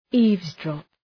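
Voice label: "eavesdrop", English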